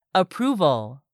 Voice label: "APPROVAL", english; 3000 words

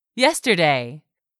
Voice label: "yesterday", English; 3000 words

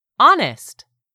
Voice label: "honest", English; 3000 words

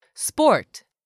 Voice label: "SPORT", english; 3000 words

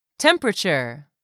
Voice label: "temperature", English; 3000 words

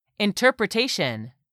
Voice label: "interpretation", English; 3000 words

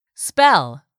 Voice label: "spell", English; 3000 words

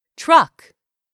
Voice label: "truck", English; 3000 words

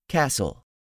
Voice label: "castle", english; 3000 words

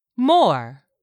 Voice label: "more", English; 3000 words

